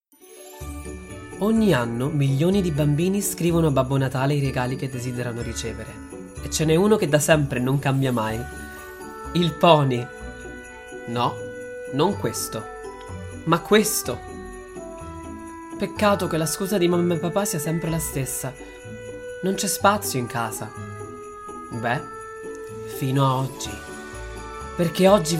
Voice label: Italian

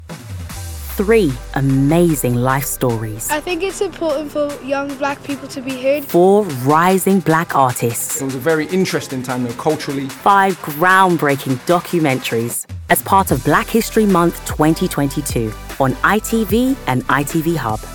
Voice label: narration

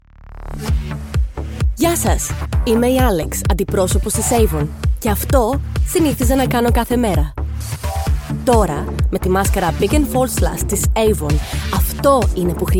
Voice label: Greek

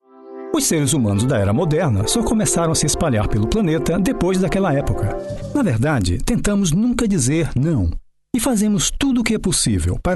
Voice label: Portuguese-Brazilian, Male, 30s-50s

